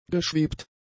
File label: "geschwebt", German; adjectives